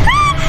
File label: "Nooo", Worms speechbanks; Ninomae Ina'nis